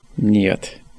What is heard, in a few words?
"nyet", russian